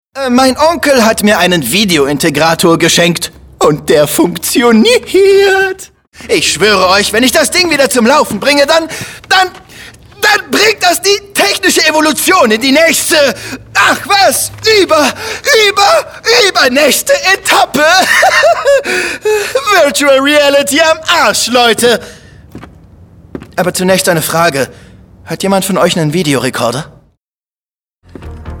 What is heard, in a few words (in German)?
DEUTSCH MALE Hoerspiel; Wolfy Office; Videointegrator; LOFT VOICES